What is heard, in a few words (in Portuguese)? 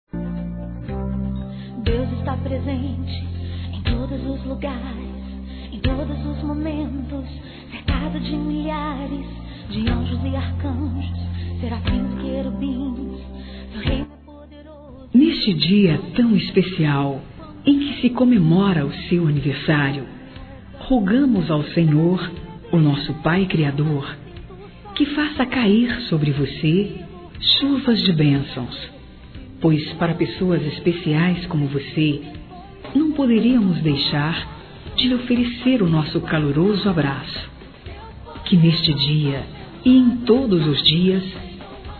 Telemensagens, Aniversários, Fem; ANIV. EVANGÉLICO GERAL(PLURAL); Telemensagens 2017